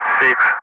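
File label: Speech, English, Digits, 3 continue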